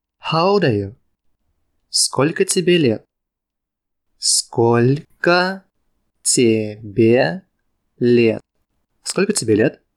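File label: Russian How old are you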